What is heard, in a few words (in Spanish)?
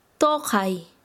"escupir", Quechua Chanka